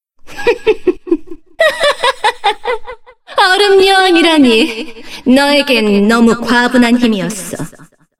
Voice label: cinematic voice; lineage2

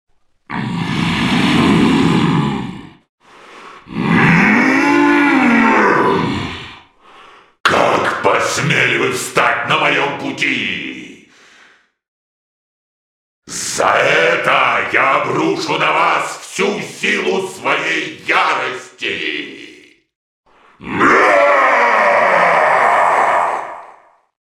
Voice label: cinematic voice, ru; lineage2

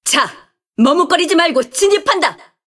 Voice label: cinematic voice; lineage2

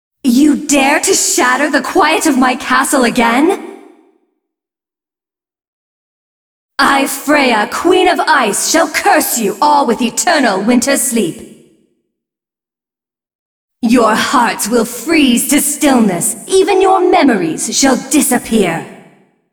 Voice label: cinematic voice; lineage2

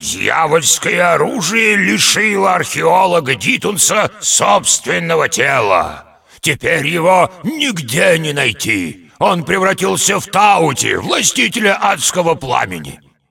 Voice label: cinematic voice, ru; lineage2

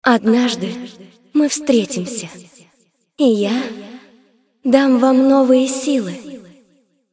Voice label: cinematic voice, ru; lineage2

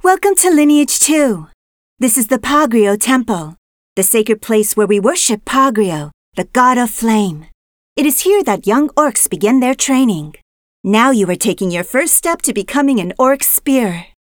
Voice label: tutorial voice, es; lineage2

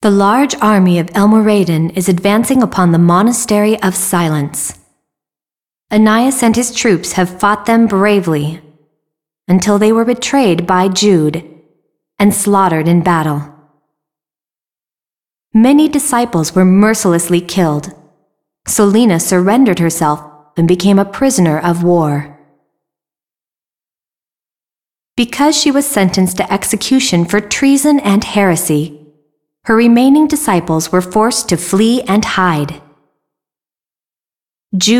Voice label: cinematic voice; lineage2

